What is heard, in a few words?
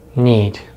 "need", American English; easypronunciation